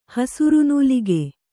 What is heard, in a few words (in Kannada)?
"ಹಸುರುನೂಲಿಗೆ", Kannada